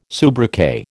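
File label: "soubriquet", American English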